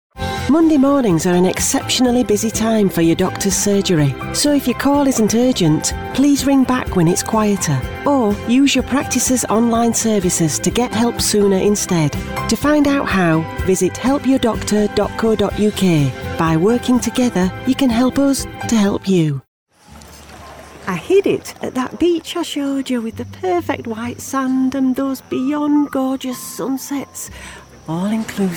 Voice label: female English northern accent commercial compilation